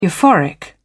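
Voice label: "euphoric", British English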